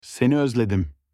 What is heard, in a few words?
i miss you in turkish